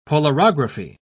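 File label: "polarography", British English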